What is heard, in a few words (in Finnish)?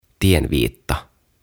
"Tienviitta" "Signpost"